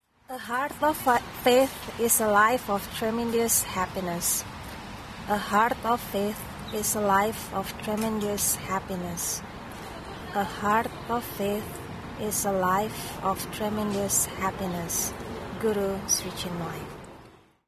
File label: poem